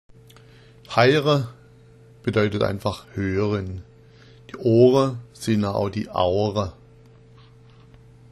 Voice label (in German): haira, schwaebisch